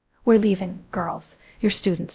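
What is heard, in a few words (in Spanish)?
Voice Acting, es, female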